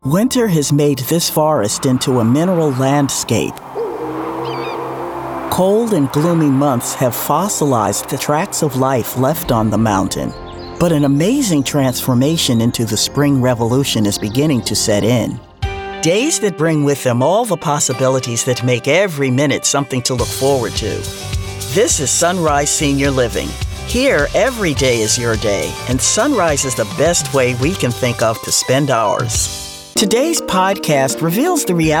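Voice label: 40s50s Female African American Narration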